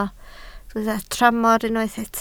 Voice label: "tramor", Welsh

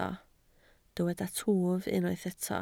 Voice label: "twf", Welsh

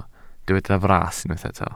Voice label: "fras", Welsh

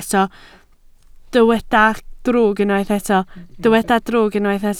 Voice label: "drwg", Welsh

